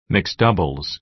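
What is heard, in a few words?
"mixed doubles", American English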